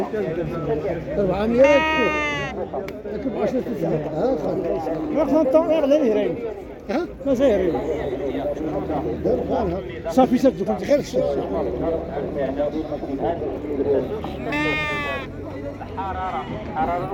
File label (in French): reportage souk